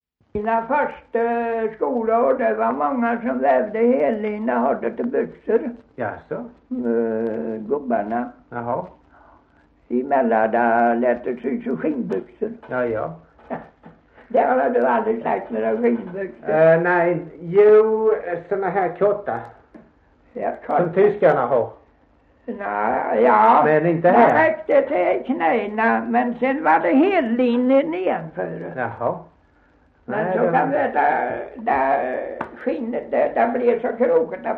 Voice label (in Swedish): Gunnarp, dialekter; Folkminnen